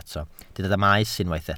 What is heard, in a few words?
"maes", Welsh